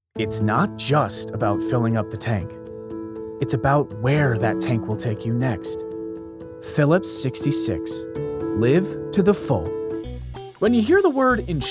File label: Commercial